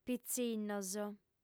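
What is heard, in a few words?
"pitzinnos", female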